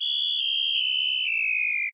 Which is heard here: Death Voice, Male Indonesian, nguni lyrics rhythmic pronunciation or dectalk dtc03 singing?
Death Voice